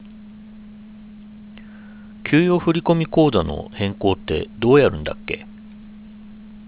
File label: Ja JP narrow; watson stt; bluemix